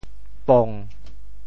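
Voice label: "pong7", Teochew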